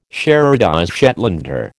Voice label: "sherardize Shetlander", American